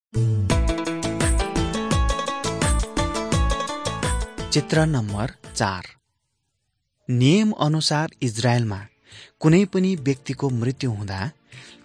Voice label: servants of god nepali